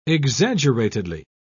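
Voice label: "exaggeratedly", British English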